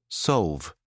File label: "solve", British English